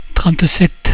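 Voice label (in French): "trente sept", French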